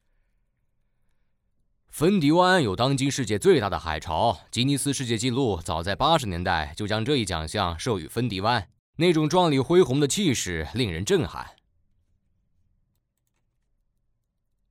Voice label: 男 译制片 男角色四个

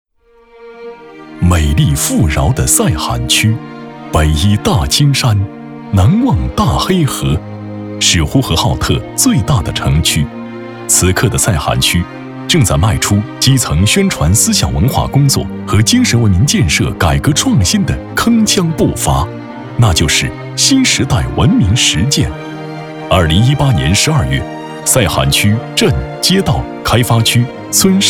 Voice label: 男 城市宣传片 大气 稳重 讲述; 呼和浩特赛罕区

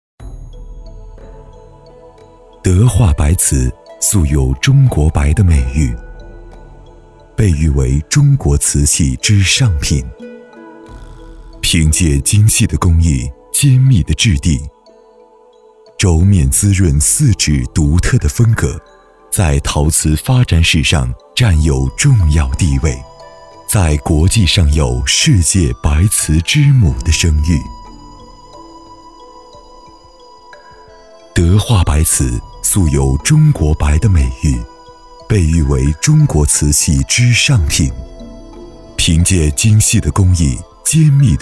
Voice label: 男 走心专题 宣传片 沉稳 旁白; 爱陶瓷宣传片

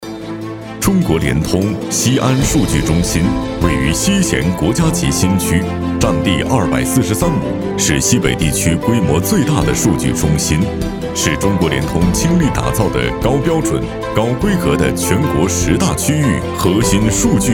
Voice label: 男, 专题 企业 科技感; 陕西联通

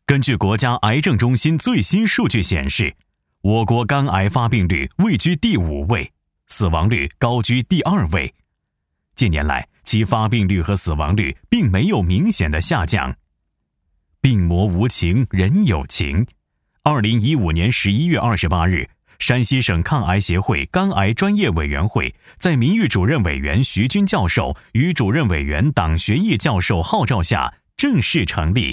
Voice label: 男, 医疗专题; 抗癌协会

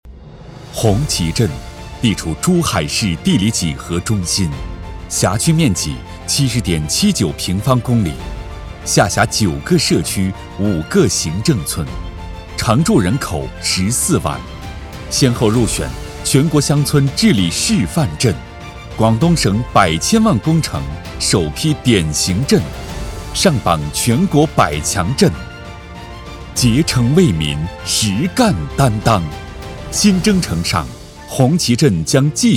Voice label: 男 党建专题 大气 力量感 沉稳

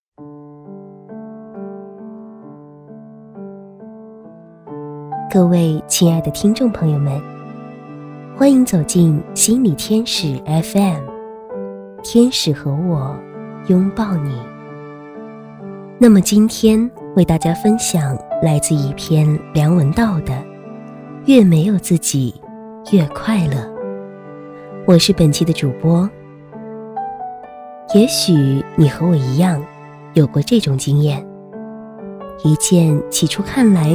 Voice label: 女-心灵鸡汤-温柔-知性

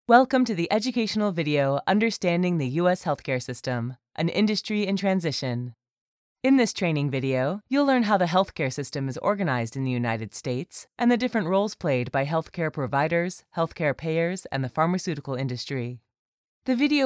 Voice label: 外籍英女 美国医疗系统介绍

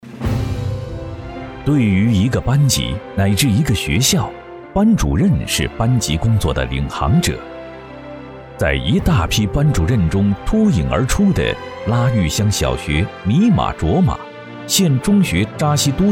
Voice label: 男 宣传片 优秀教师表彰词

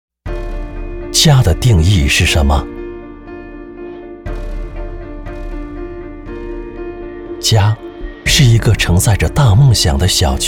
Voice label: 男, 公益广告; 家的定义

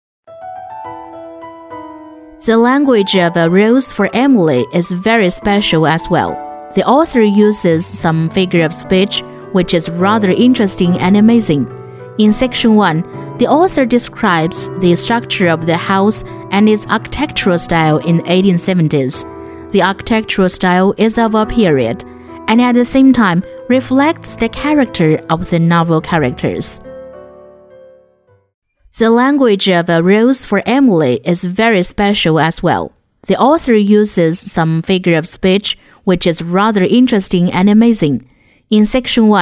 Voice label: 英女 英语讲述 自然

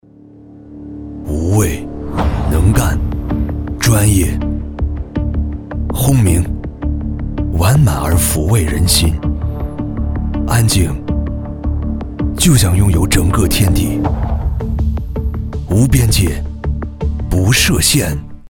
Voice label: 男 广告 高级汽车

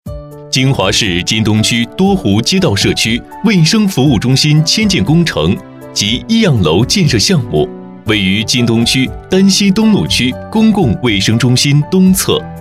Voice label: 男 专题 卫生院; 多湖卫生院

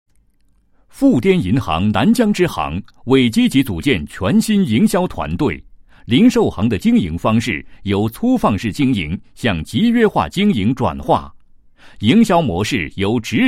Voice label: 男 企业 宣传介绍; 南彊支行

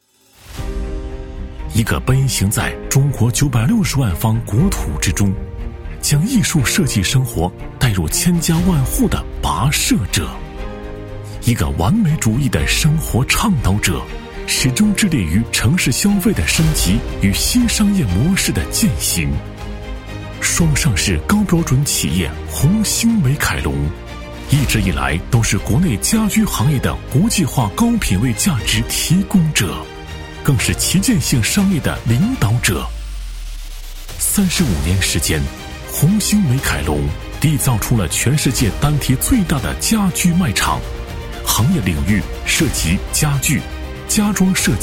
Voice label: 男 专题; 红星美凯龙

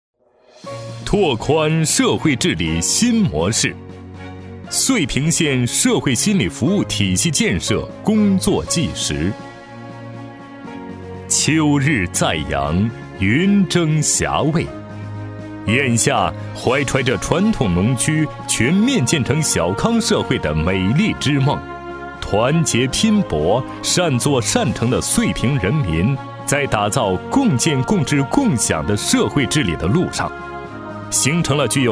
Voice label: 男 专题汇报; 遂平县社会心理服务体系建设工作纪实

